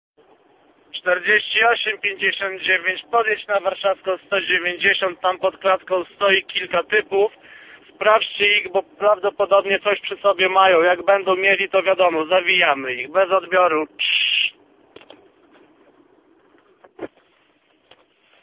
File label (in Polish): Polska Policja Radio